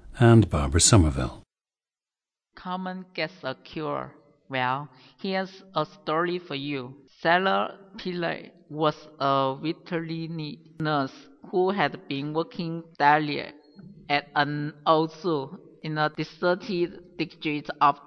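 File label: guangxi, International Dialects of English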